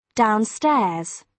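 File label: "downstairs", British English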